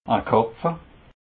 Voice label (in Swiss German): "karpfle", alsace